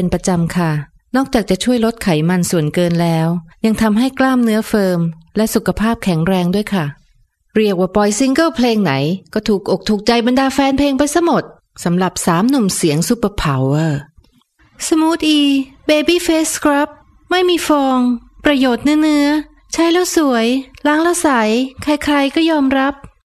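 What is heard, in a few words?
Thai female; voice-over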